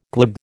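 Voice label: "glib", American English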